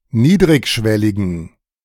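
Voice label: "niedrigschwelligen", German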